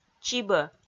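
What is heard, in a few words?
"чибӧ", Komi, female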